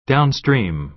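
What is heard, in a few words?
"downstream", American English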